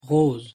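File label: "rose", French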